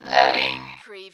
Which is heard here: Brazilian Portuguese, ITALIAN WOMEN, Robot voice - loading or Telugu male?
Robot voice - loading